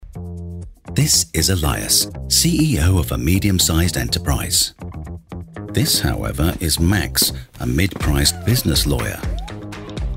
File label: British Voiceover Corporate Video; DOCUE